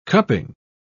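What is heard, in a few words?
"cupping", British English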